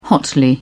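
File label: "hotly", British English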